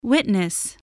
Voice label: "witness", voice, english